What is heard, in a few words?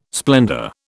"splendour", British